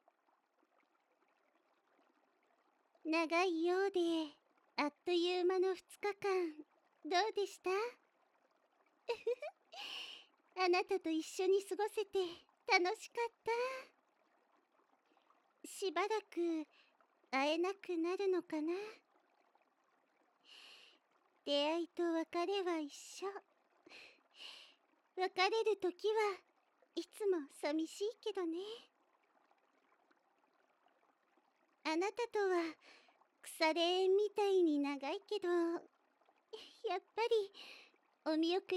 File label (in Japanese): おかえりのご挨拶, ASMR